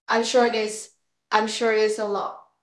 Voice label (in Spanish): Voice Acting es F0